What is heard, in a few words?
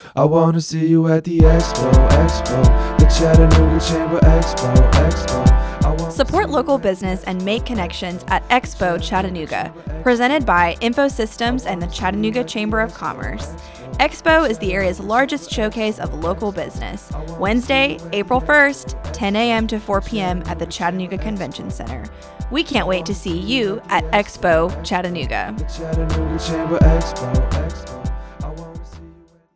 radio spot; expo 2020